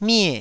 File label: "mie4", Taiwanese